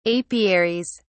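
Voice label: "apiaries", British English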